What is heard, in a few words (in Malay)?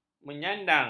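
"menyandang", Malay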